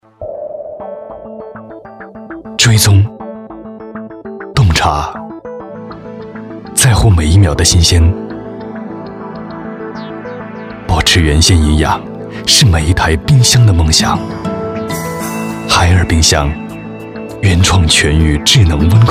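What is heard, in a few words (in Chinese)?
男国大音【广告】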